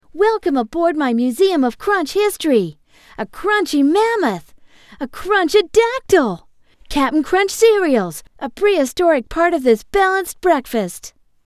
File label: boy voice